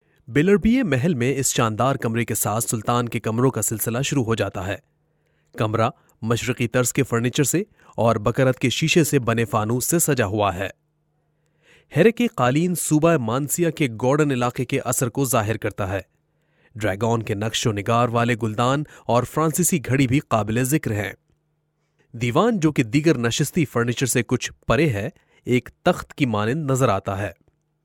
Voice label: Urdu